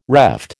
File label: "reft", American